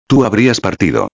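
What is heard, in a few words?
"habrias partido", Spanish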